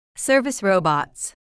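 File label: "service robots", English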